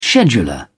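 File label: "scheduler", British English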